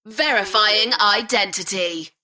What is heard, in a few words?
UK female, Verifying identity; beanstalk audio